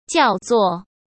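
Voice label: "叫作" Mandarin Chinese; hsk; chinese-flashcards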